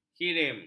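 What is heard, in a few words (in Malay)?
"kirim", Malay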